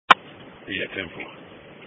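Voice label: Police Patrol Dispatch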